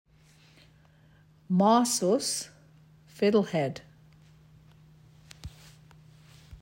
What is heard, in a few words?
Mahsus English translation; hampton community centre